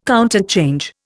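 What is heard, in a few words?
"counterchange", Indian English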